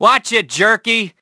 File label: Male Jerky